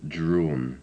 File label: "drawn", english